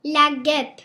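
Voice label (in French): "guêpe", French; Les insectes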